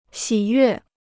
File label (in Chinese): "喜悦", Chinese